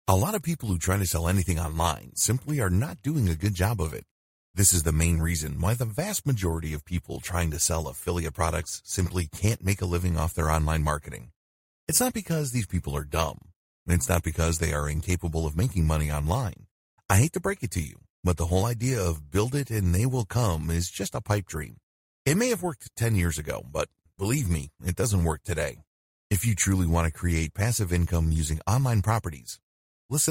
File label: Sales Video Voice Over; sales funnel optimization strategies